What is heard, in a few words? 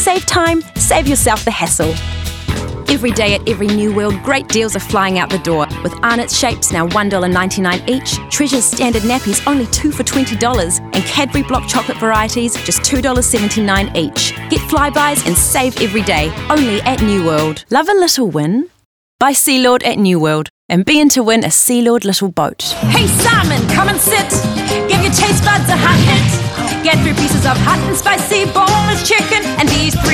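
english New Zealand female commercial; voice demos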